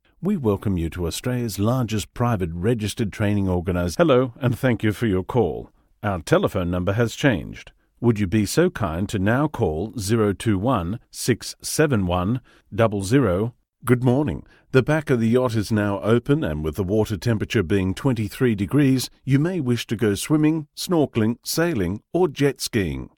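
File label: English Australian, IVR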